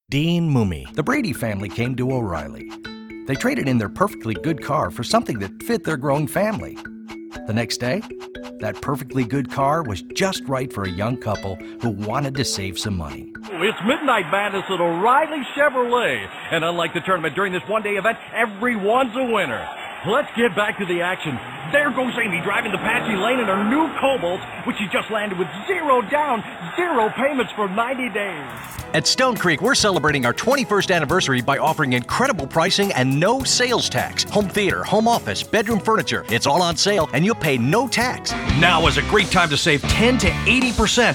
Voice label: Commercial, Male voices